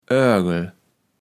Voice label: "Örgel", Platt

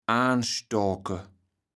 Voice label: "aanstoke", kaevels platt

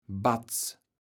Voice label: "Batz", kaevels platt

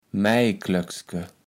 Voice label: "Mäjklöckske", kaevels platt

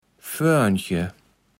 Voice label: "Föörnche", Platt

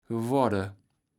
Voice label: "geworde", Platt

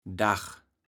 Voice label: "Dag", kaevels platt

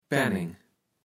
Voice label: "Pänneng", kaevels platt

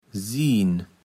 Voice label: "siehn", Platt

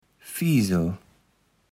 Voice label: "Fisel", Platt

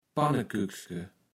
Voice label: "Pannekükske", Platt

